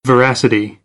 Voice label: "veracity", American English

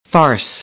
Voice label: "farce", American

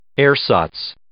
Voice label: "ersatz", English

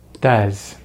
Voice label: "does", American English; easypronunciation

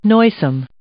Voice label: "noisome", English

